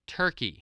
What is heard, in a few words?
"turkey", voice